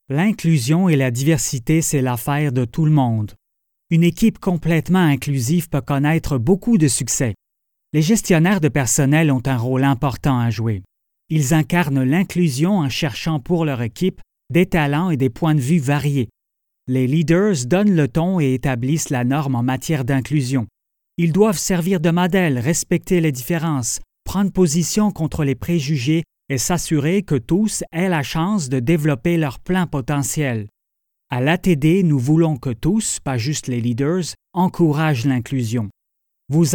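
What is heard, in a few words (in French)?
français canadien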